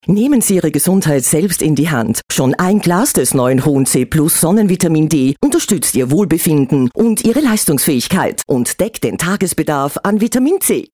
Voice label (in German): Werbung